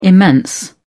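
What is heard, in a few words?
"immense", British English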